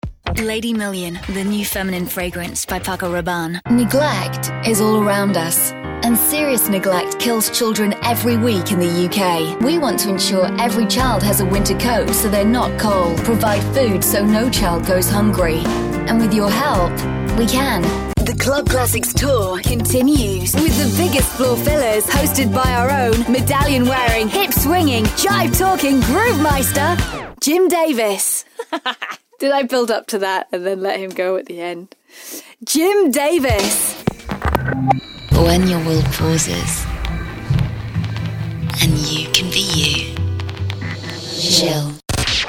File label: foreign language british